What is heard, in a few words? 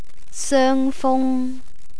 "soengfung", Cantonese